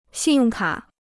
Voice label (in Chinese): "信用卡", Chinese